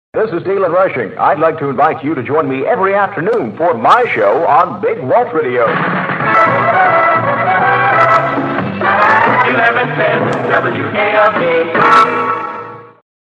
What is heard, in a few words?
promo; 1967